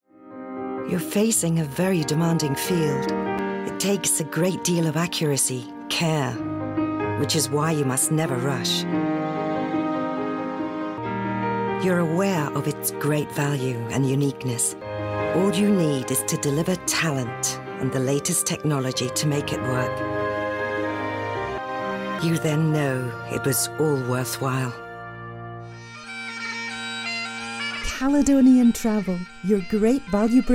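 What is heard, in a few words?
comercial, British English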